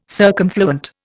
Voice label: "circumfluent", Indian

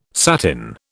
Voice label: "satin", British

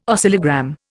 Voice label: "oscillogram", Indian

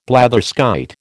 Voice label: "blatherskite", American

